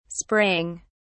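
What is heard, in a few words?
"spraying", English, British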